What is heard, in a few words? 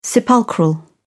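"sepulchral", British English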